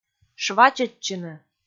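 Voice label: "швачӧдчыны", Komi, female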